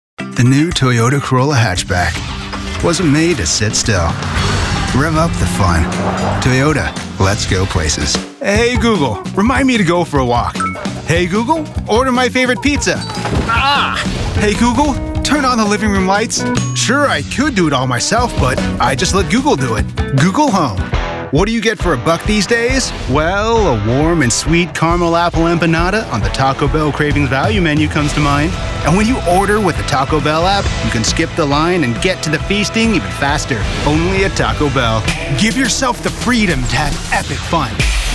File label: Commercial